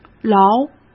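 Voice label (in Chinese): "牢" Mandarin Chinese; hsk; chinese-flashcards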